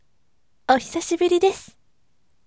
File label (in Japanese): voice ohisashiburi desu yasashime